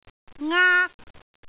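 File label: "aak1", Cantonese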